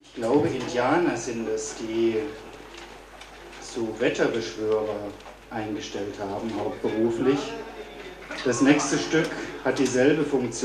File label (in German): Ansage